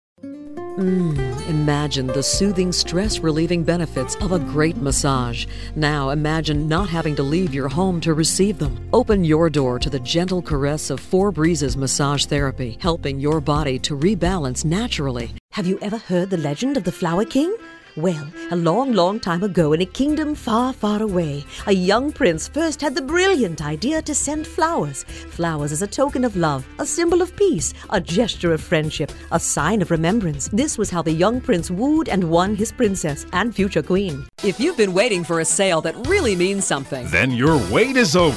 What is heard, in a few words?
Commercial